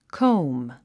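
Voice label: "comb", English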